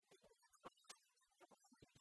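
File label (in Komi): ми удитӧмаӧсь нин петны музейысь, Komi, female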